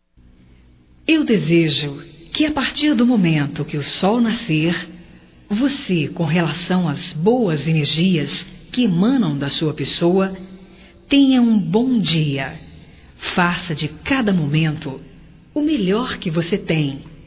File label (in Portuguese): Bom Dia Geral Feminino